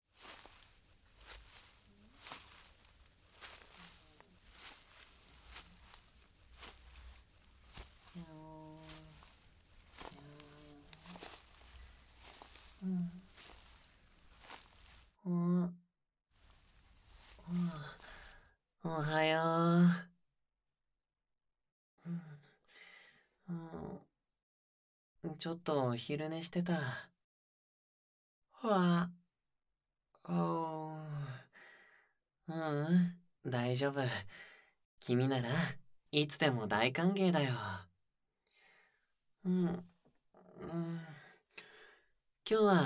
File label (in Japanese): 添い寝; コン